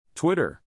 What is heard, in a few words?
"twitter", English, neural; animal sounds in english; Stephen